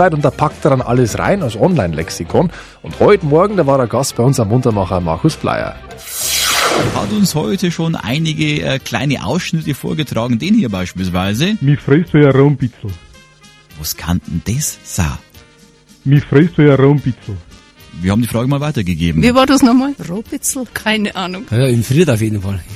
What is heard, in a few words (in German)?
Mundart